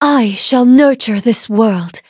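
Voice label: level up, English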